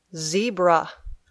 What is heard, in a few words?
"zebra", English